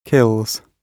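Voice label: "kills", British English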